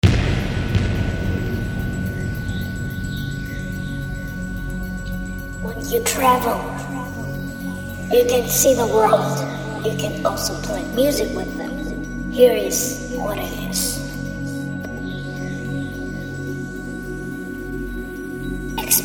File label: alien voice space